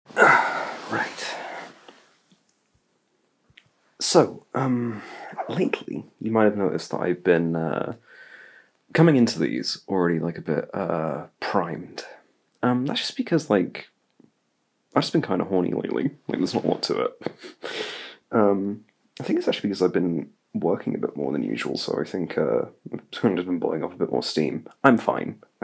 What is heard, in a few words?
Exhausted Ramble